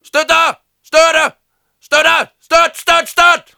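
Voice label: Danish positive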